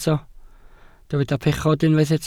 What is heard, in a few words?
"pechod", Welsh